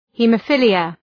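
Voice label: "haemophilia", English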